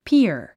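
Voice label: "peer", English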